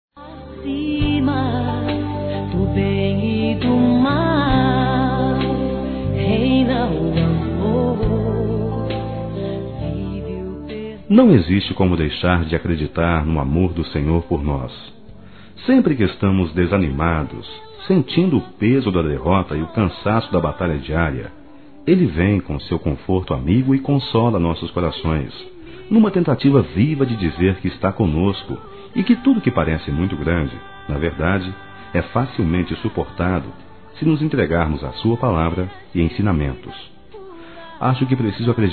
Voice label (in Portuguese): RECONCILIACAO (M), Telemensagens; ANIV. Evangelico